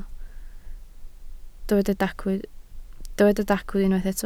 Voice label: "dacw", Welsh

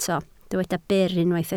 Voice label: "byr" Welsh

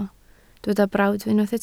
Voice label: "brawd", Welsh

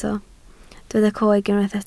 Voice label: "coeg", Welsh

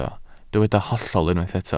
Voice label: "hollol" Welsh

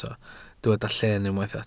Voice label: "llen", Welsh

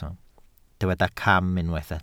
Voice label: "cam", Welsh

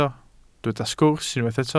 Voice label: "sgwrs" Welsh

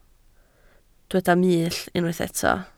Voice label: "mull" Welsh

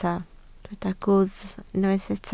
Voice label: "gwddf", Welsh

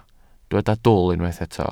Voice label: "dwl", Welsh

